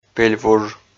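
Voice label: "пель вуж", Komi, male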